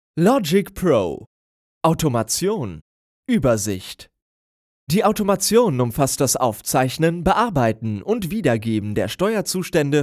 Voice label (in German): e-learning deutsch